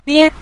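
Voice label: "nie", Mandarin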